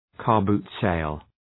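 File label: "car boot sale", English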